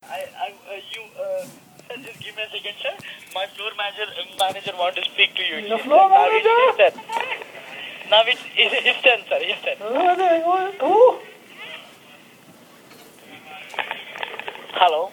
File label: Free Cell Phooooone telemarketer prank